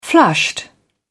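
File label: "flushed", British English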